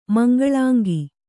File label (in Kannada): "ಮಂಗಳಾಂಗಿ", Kannada